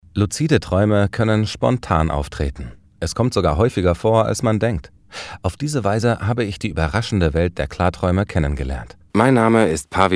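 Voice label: narration, German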